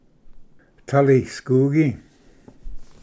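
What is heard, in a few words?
"talisgugi", Cherokee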